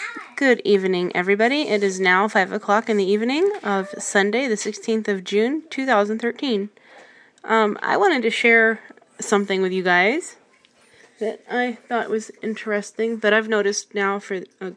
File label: voiceover voices and they re more amusing or disturbing vocal characterizations